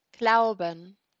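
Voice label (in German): "glauben" German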